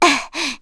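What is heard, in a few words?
Vox Landing, voices heroes English; kingsraid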